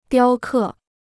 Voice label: "雕刻", Chinese

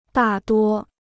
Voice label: "大多", Chinese

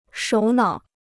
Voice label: "首脑", Chinese

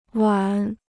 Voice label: "碗", Chinese

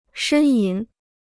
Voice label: "呻吟", Chinese

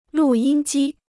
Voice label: "录音机", Chinese

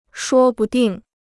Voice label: "说不定", Chinese

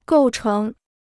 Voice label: "构成", Chinese

